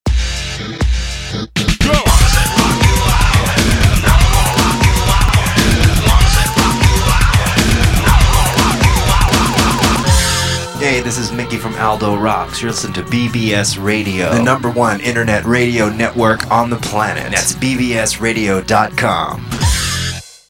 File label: bbs radio promo